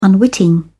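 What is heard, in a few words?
"unwitting", English, British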